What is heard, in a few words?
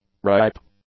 "ripe", English; adjectives